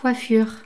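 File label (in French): "coiffure", French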